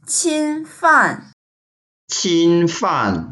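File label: "qin1fan4", Mandarin Chinese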